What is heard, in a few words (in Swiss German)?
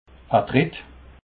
"tritt", alsace